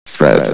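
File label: "threat", male, vox; valve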